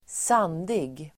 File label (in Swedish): "sandig", Swedish